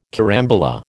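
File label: "carambola", American English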